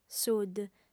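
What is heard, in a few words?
"sud", Sardinian, female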